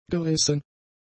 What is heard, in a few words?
"gerissen", German; adjectives